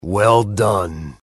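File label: "nice shot", male; tf; max payne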